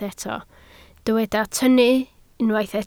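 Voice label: "tynnu" Welsh, spoken word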